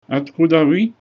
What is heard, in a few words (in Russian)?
where from, ru; Oткуда вы?